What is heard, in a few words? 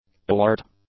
"alert", English; adjectives